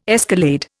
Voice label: "escalade", Indian